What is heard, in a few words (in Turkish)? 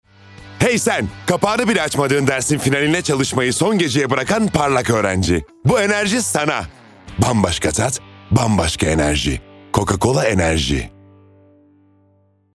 kampanya tempolu, seslendirme